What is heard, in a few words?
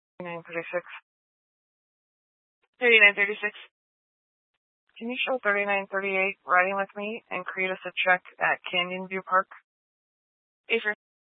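Incident Tactical, scanner audio recording; Incident Tac 3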